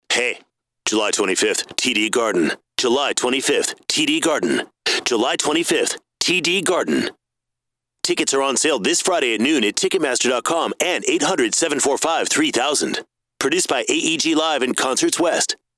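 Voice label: voice over in car lots of processing; in the car studio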